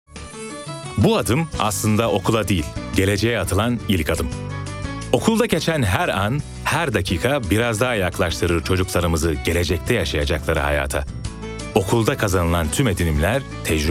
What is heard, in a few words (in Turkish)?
enerjik esprili, seslendirme